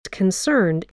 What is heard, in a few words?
"concerned", english